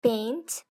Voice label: "paint", English; grade3; fun with sentence